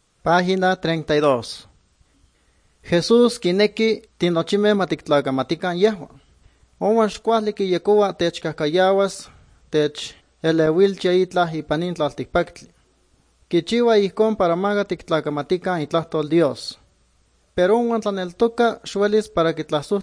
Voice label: good news, nahuatl guerrero chilapa

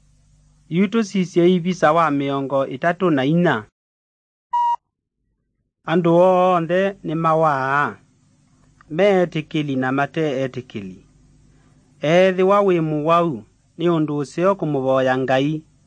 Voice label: kikamba drama; good news; bible

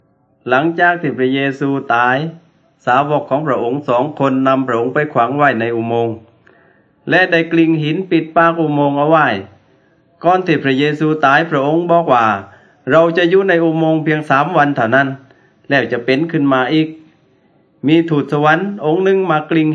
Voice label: words of life creation to christ thai southern

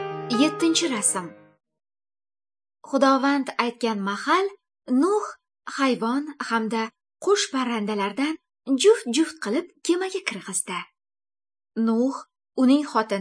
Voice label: good news female uzbek northern

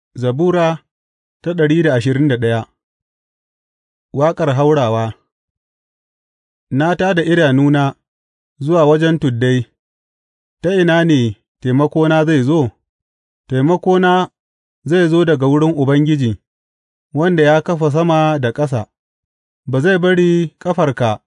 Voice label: hausa bible drama, psalms; hausa bsn nt drama

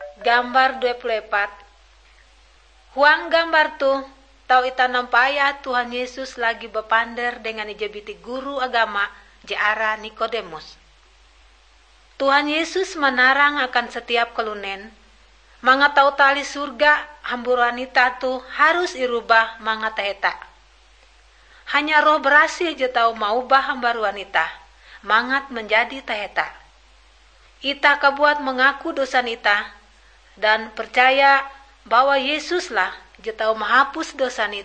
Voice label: kabar bahalap good news ngaju, drama

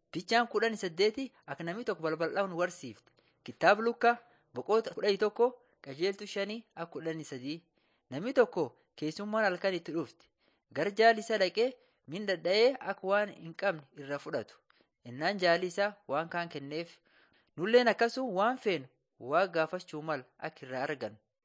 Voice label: borana sakuye

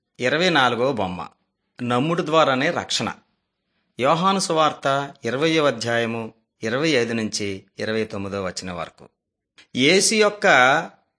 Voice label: telugu bangladesh; beginning with god

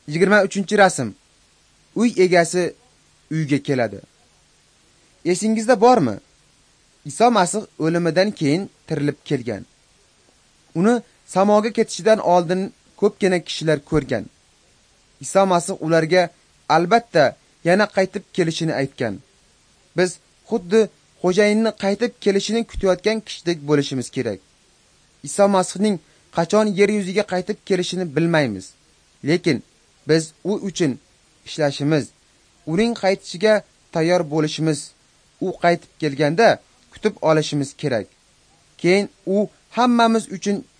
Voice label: uzbek northern

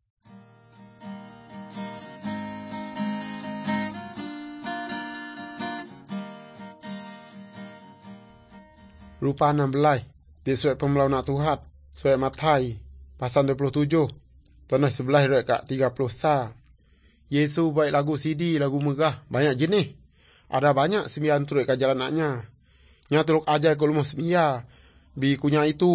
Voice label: good news, urak lawoi

